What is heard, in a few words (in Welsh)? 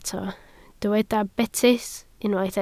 "betys", Welsh